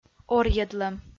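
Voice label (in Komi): "орйӧдлӧм", Komi, female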